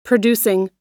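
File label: "producing", US English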